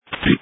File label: Police Dispatch